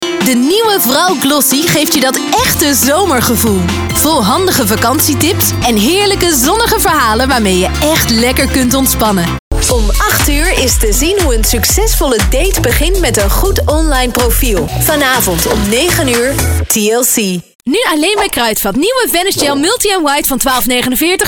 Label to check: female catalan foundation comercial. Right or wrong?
Wrong